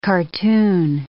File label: "cartoon", English